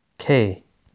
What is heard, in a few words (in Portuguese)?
Alfabeto em Inglês Pronúncia a letra K